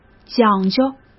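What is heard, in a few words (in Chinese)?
"讲究", Mandarin Chinese; chinese flashcards; hsk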